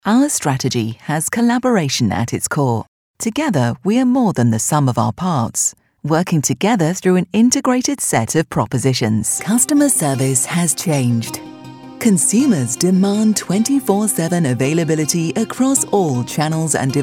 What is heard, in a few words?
truly english voice voiceover corporate; corporate reel